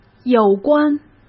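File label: "有关", Mandarin Chinese; hsk; chinese-flashcards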